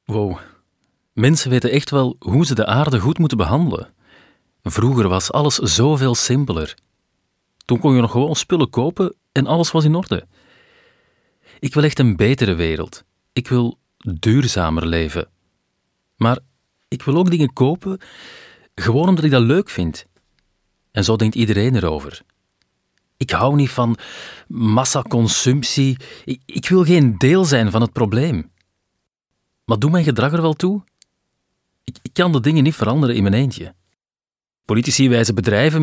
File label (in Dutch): Flemish Narration